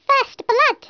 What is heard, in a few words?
"first blood", English